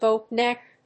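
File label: "boat neck", English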